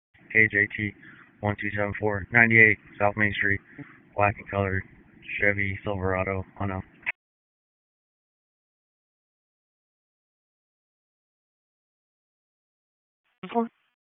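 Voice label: Sheriff's Office Dispatch 1, p25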